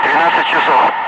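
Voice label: Russian speech, Ka-50 Wingman; DCS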